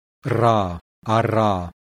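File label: voiced alveolar trill; IPA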